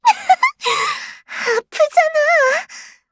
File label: attacked, Voice Line, KOR; Eternal Return; Garnet